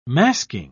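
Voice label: "masking", British English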